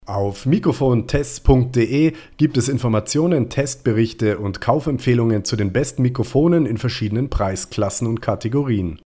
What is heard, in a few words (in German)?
USB Mikrofon Test Pegel90 Abstand nah Popfilter; Samson Meteor Mic